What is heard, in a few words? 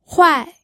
"huai4", pinyin audio